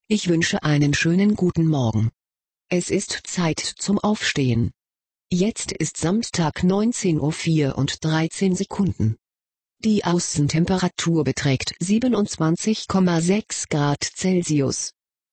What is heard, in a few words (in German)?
Sprachausgabe Wecker